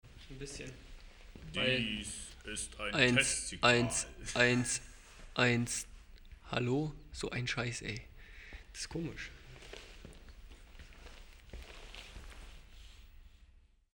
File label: Binaural Voice